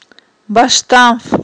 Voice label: "башттанф", Aghul